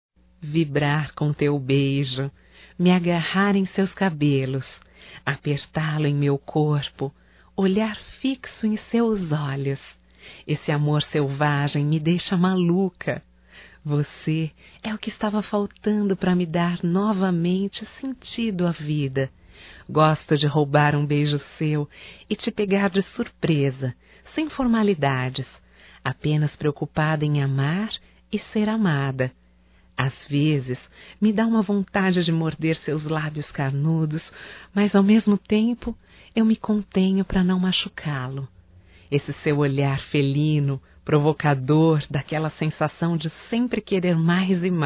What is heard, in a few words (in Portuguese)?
ROMANTICA(F), Românticas, fem